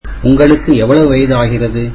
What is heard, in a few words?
tamil How old are you